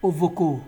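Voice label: "ovoko", Nigerian Pidgin, male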